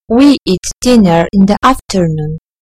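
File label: "we eat", British English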